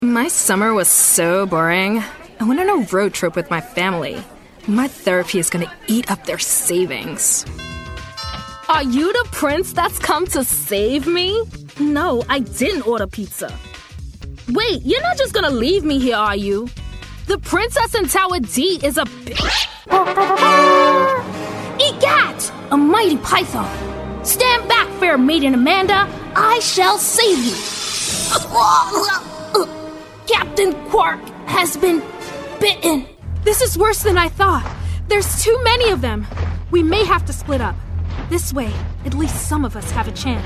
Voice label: Animation, Women

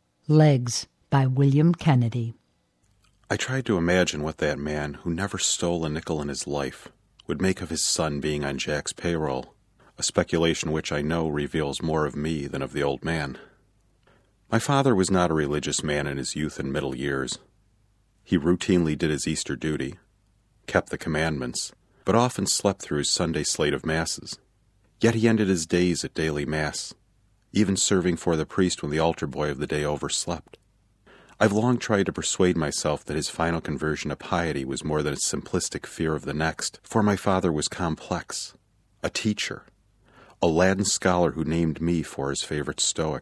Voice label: Men Audio Book

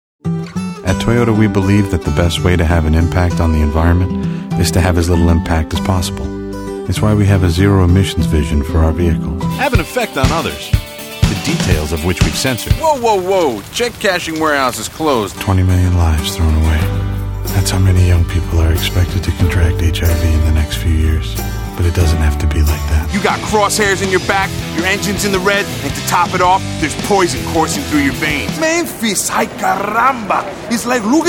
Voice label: Men Commercial

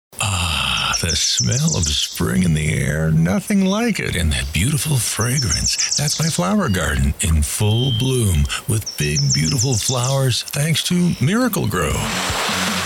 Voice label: Commericals, Men Commercial

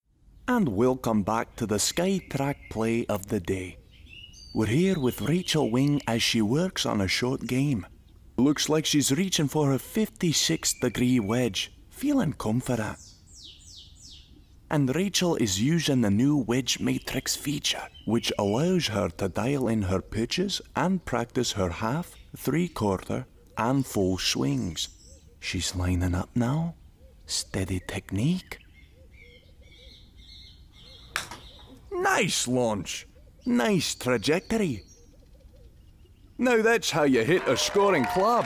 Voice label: Scottish

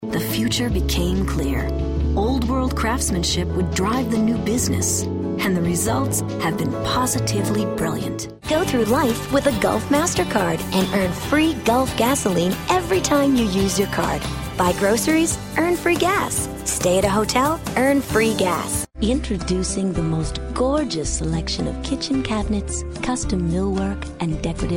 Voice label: Women Commercial

